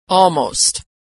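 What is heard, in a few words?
"almost", American English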